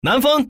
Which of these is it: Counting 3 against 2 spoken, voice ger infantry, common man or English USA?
common man